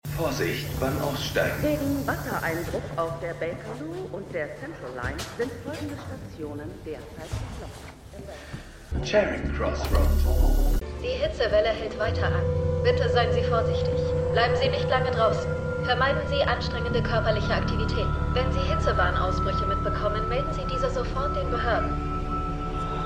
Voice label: Maennl Durchsage Wbl Durchsage; Extrapolations

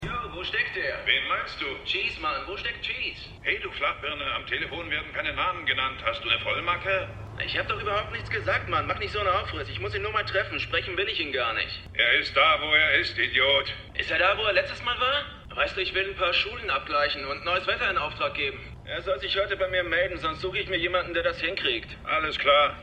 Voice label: Abgehoerte Stimme; The Wire

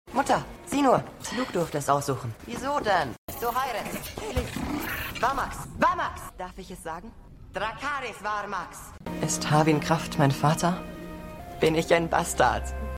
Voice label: Kind, Stimmproben; Prinz Jacaerys Velaryon; House Of The Dragon

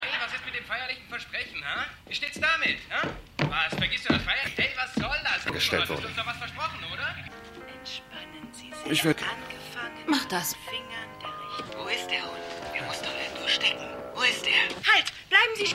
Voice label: Entspannungssprecherin, junger Mann, Frau; Stimmproben; Ausgerechnet Alaska; Paul Shaffer

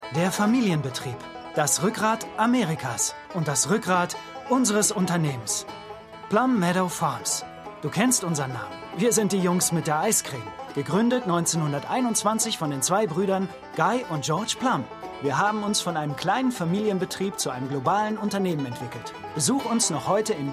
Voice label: Werbesprecher; The Good Fight

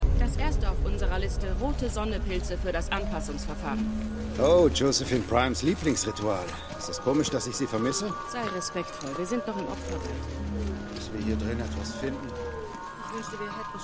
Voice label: Frau Mann, Stimmproben; The 100

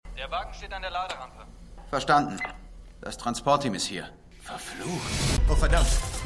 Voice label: Maennl Funkstimme Wachmann; The Flash

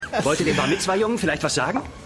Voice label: Mann Videokamera

